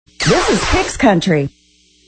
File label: This is Kix Country shout; 98.7 WAKX-FM